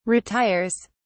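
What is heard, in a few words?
"retires", British English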